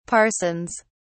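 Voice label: "parsons", British English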